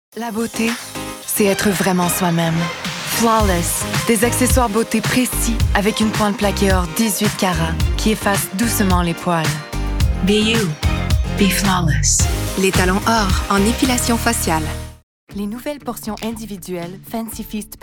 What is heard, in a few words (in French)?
demo voix